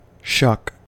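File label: "shuck", American English; pronunciations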